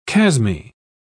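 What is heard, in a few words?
"chasmy", British English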